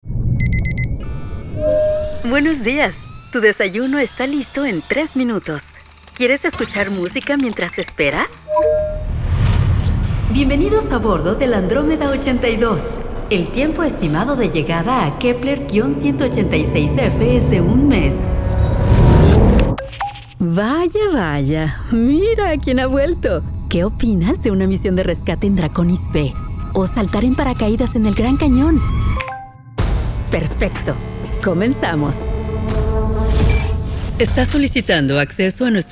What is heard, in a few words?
Spanish; Talent Management Group, Inc.; Murray, UT